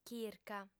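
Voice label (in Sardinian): "chirca", female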